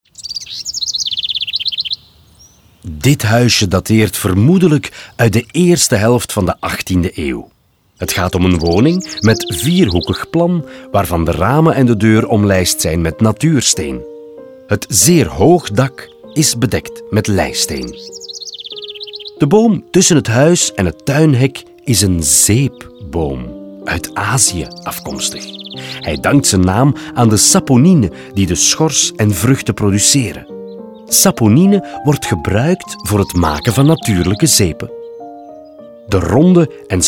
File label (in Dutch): audioguide nl enfant; modave